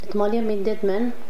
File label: quarrel, Domari